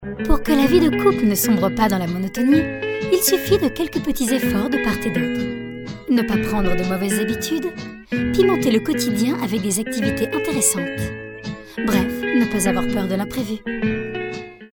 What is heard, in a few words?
female french event comercial